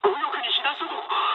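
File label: right wing mood low, speech japanese; War Thunder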